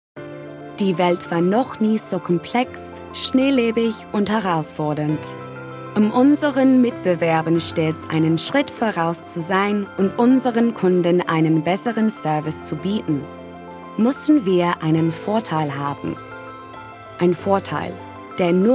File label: Commercial, Bright, Upbeat, Conversational, RP ('Received Pronunciation'); Mishcon